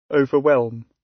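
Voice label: overwhelm, British; Language, linguistics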